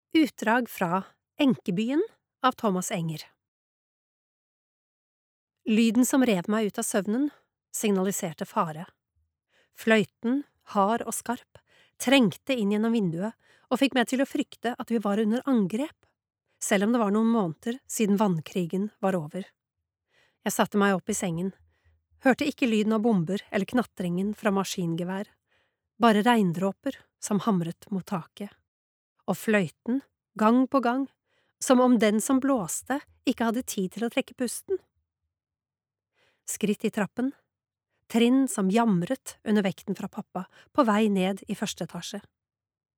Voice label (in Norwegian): lydbok, norsk, kvinne